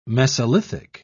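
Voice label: "mesolithic", British English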